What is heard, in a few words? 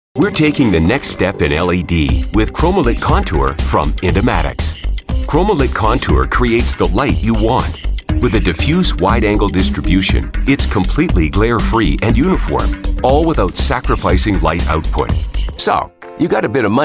Voice Talent Narration